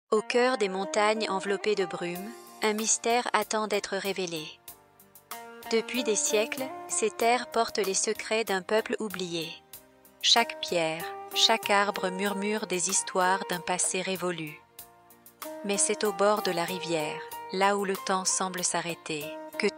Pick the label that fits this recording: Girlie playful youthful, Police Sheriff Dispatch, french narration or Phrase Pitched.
french narration